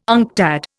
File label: "UNCTAD", Indian